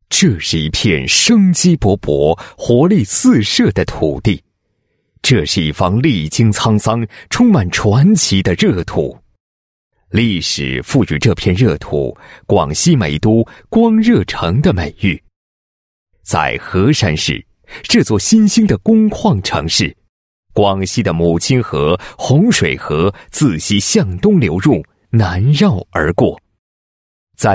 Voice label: 男-医院-某市人民医院简介, 配音案例

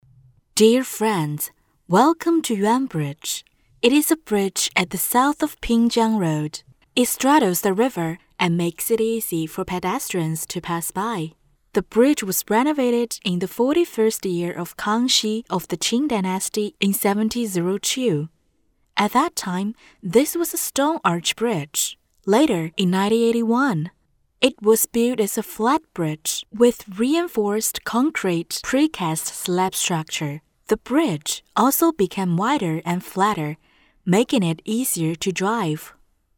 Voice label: 女 英文专题 景区

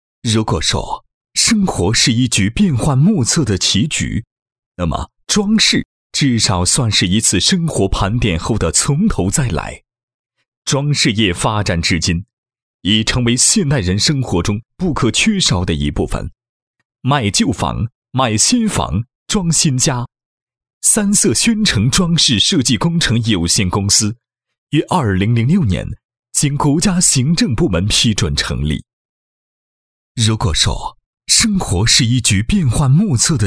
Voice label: 男, 企业宣传; 三色轩城装饰设计工程有限公司宣传配音

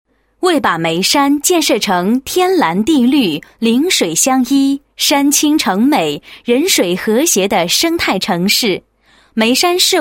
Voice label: 女, 演讲稿; 眉山水系规划讲演稿

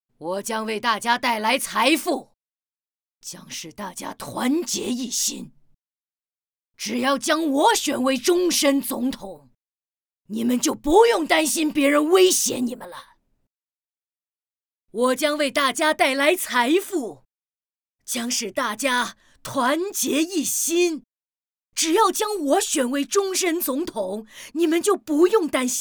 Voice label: 女, 总统

